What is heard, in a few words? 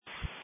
Sheriff Dispatch